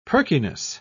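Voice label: "perkiness", British English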